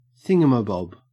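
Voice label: "thingamabob", Australian; pronunciations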